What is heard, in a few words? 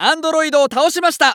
Announce New Boss Die, JPN; Eternal Return